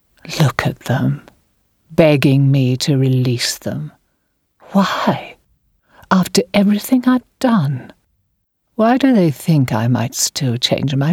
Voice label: Gaming Character voice only; Gaming Character Voicereel